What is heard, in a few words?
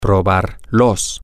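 to taste try it, Spanish, male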